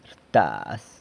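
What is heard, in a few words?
"rtaz", beats up, San Lucas Quiaviní Zapotec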